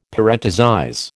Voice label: "parenthesize", American

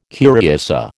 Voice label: "curiosa", American

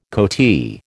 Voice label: "coatee", American

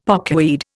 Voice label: "pokeweed", Indian; Dictionary

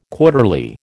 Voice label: "quarterly", American

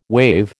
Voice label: "waive", American

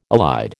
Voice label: "elide", American

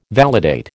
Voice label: "validate", American

